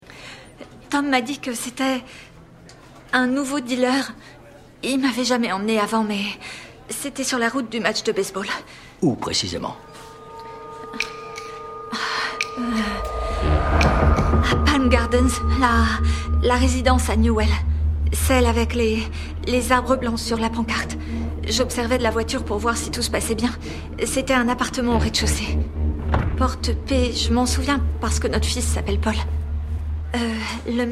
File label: Doublage; American Rust; Molly Miller